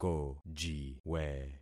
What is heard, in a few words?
"kojiwe", concat syllables; Affixing experiment